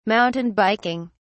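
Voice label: "mountain biking", British English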